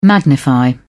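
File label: "magnify", British English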